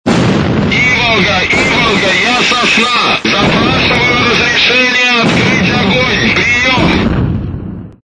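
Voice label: "Requesting permission to open fire", Russian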